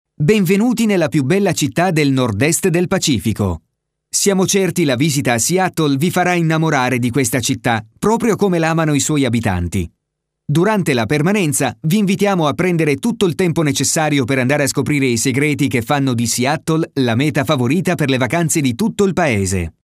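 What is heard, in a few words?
Italian male voice over, upbeat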